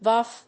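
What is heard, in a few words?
"VHF", English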